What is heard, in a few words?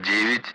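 Speech Russian; Allied Flight; Digits; DCS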